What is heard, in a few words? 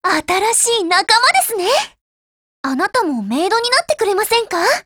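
BUILD OVER, Japanese; 88typeMod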